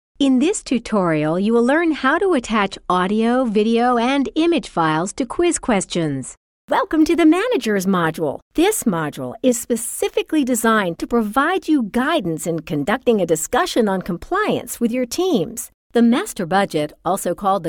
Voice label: eLearning, female voice